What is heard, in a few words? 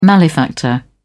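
"malefactor", British English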